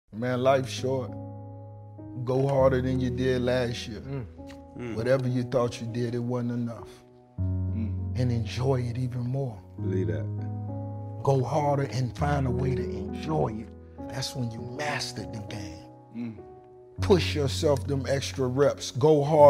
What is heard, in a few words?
Inspirational Speech; Rick Ross Tells Everyone That Life Is Short